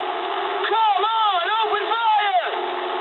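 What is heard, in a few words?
English attack position mood med; War Thunder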